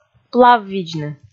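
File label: "плаввидзны", Komi, female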